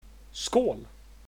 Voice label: cheers, swedish